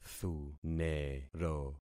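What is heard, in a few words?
"thunero", concatenated syllables; Affixing experiment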